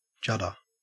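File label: "judder", Australian English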